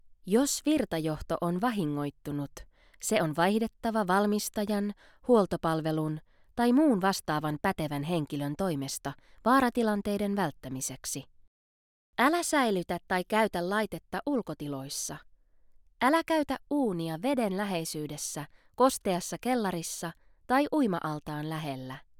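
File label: finnish female narration; voice talents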